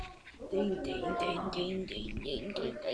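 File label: "ding", spoken word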